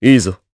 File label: Vox, jp; Riheet; kingsraid